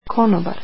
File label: Waiter, croatian